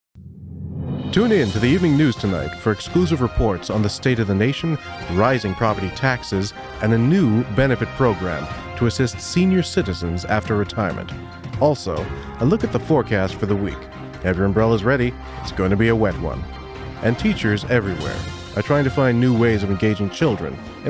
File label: Advertisement